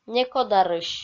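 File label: "некодарысь", Komi, female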